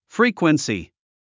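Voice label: "frequency", English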